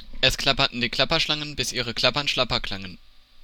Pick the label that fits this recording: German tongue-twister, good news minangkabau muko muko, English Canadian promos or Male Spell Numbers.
German tongue-twister